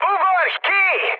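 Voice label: Hungarian bailout mood high, speech; War Thunder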